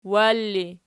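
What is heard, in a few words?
"war" ergative, Archi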